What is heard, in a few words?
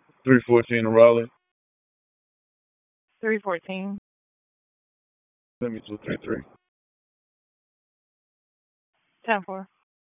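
Police Northeast District Disp, radio; Raleigh; wake; p25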